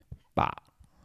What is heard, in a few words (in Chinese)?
"伯" 台城 男; bak21